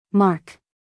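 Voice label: "mark", American English, female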